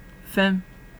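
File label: "fem", Nigerian Pidgin, male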